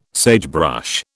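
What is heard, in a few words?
"sagebrush", British English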